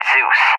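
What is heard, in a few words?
"Zeus (ZU-23-4)", English, USA; AirDefence; DCS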